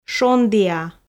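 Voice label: "шондіа", Komi, female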